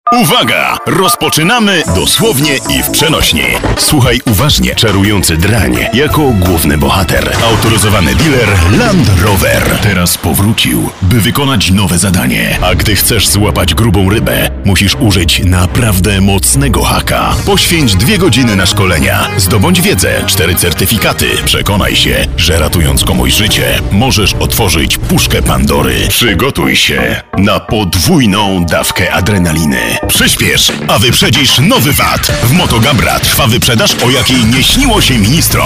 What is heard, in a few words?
commercials